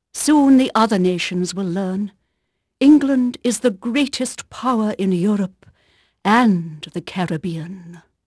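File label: English female, voice; Pirates Of The Caribbean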